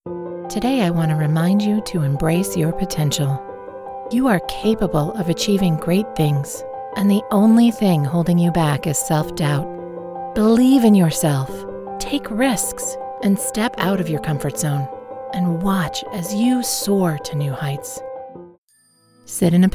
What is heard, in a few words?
Meditation Motivation